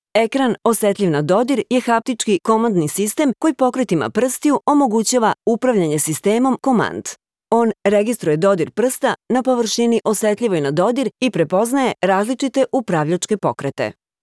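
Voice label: Serbian, female, narration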